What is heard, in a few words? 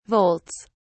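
"volts", British English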